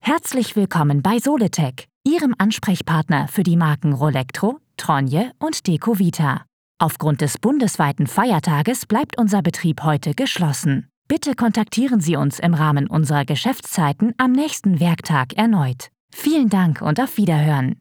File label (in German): telefonansage; soletek